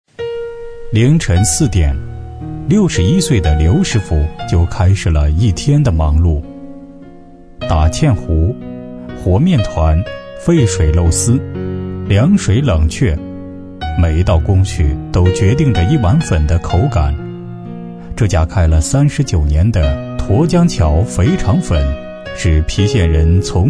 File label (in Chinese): 男声纪录片解说